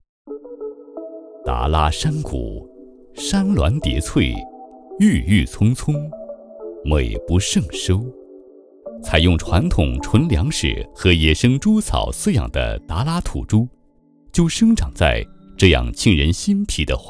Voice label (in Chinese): 纪录片 舌尖, 男国; 哒啦土猪